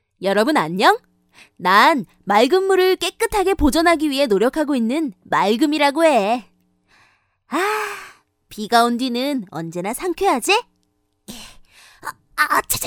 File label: Korean Character Young Boy; Female